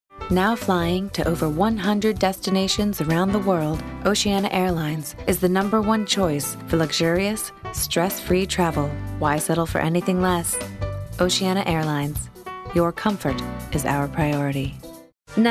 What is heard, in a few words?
TVC